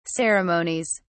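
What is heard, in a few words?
"ceremonies", British English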